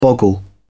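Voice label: "boggle", British English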